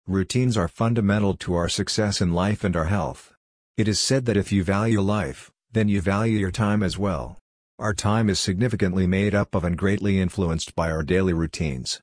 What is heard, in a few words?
Voice Male